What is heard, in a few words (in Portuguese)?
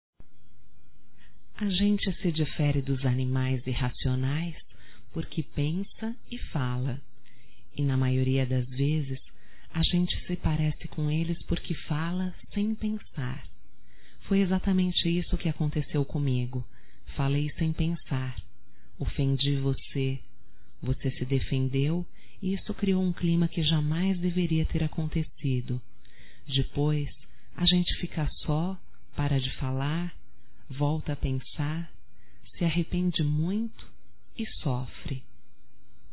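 voz, Desculpas Romântica, female, Telemensagens; Telemensagens 2017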